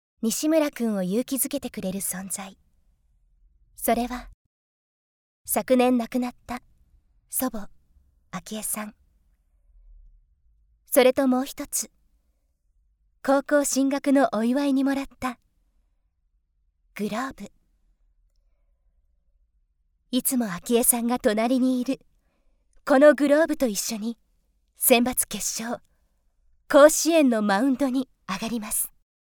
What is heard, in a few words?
narration